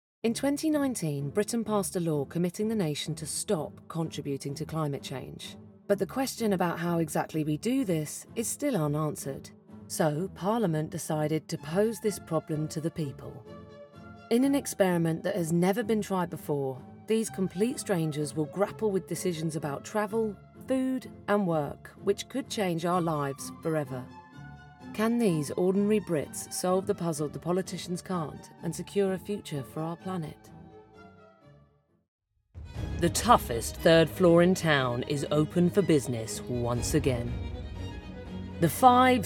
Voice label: Narrative; Pain

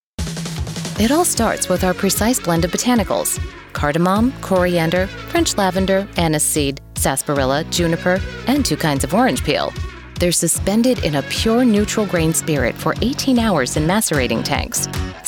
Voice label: Corporate Narration

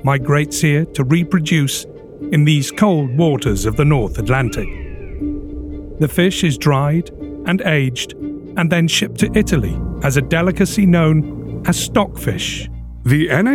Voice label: Explainer

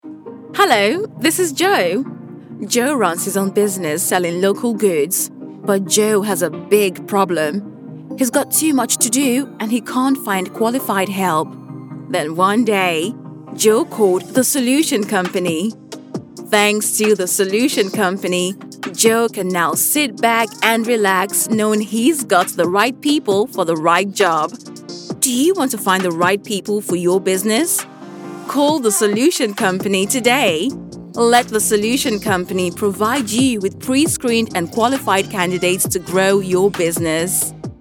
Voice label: E-Learning Conversational

